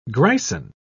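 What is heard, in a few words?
"grison", British English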